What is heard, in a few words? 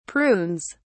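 "prunes", British English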